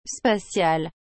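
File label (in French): "spatial", French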